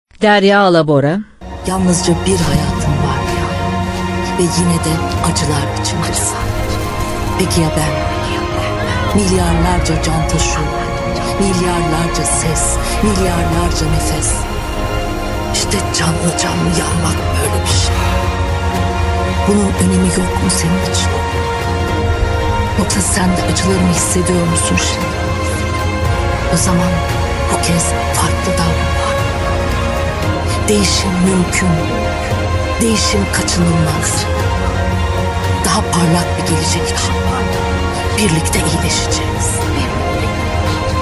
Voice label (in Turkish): turkce kadin